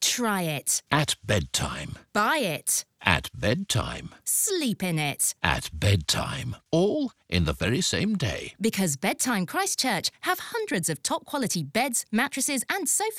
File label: Radio Ad